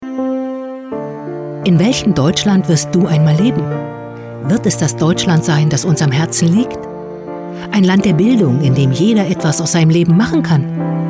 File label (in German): Parteien Werbung